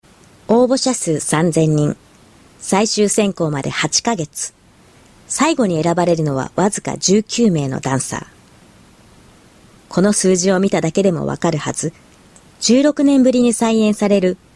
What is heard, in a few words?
VO low tone